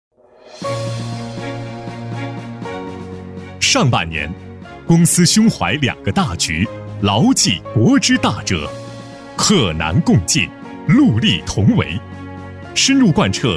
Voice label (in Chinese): 男, 地方汇报宣传片, 高端大气; 大气浑厚; 男117-高端大气